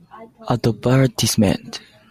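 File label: "advertisement", Japanese, male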